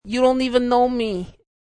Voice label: You Don't Know Even Me, High pitched male voice saying; Talking